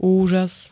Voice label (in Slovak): "úžas", Slovenské